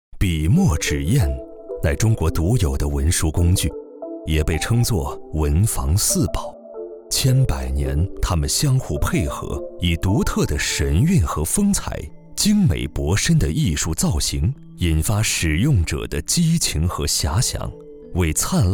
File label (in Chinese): 男, 纪录片, 自然讲述